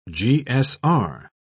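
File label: "gsr", British English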